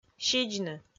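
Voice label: "сидзны", Komi, female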